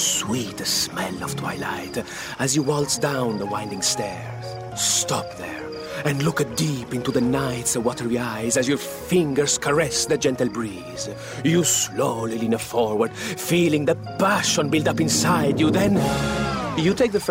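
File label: Italian Accented English; GoLocalise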